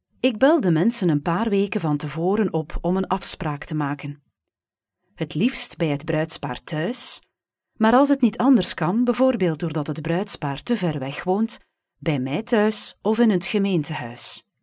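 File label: Flemish Belgium, female, voice over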